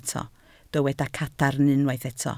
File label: "cadarn", Welsh